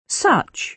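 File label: "such", British English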